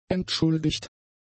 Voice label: "entschuldigt", German; adjectives